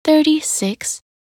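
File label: "36", English; minute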